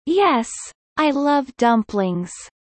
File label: Kelly low; spring festival